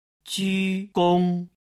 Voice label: "jugong", Chinese; お辞儀/頭を下げる

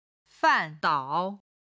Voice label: "fan4 dao3", Chinese

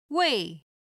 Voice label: "wei4", chinese

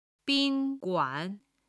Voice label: "bin1 guan3", chinese

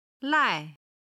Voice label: "lai", Chinese

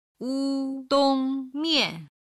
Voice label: "wudongmian", chinese

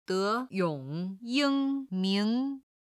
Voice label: "de2 yong3 ying1 ming4", chinese

